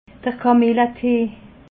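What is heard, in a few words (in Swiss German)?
"kamilletee", Alsatian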